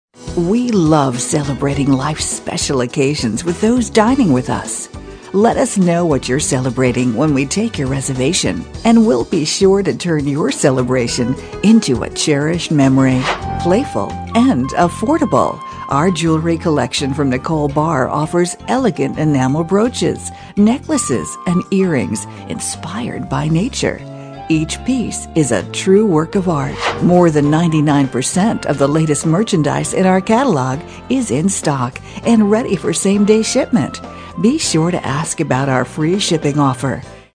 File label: female moh